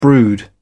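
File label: "brood", British English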